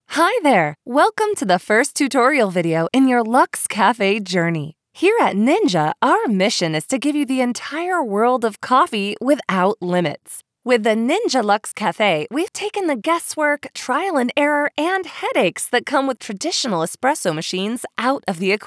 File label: Instructional Narration Sample; Shark Ninja Luxe Cafe